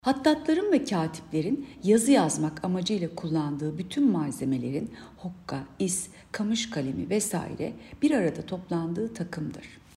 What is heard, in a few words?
turkce